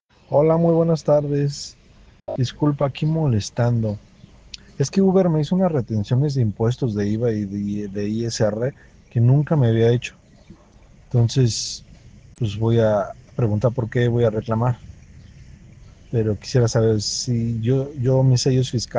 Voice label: whatsapp ptt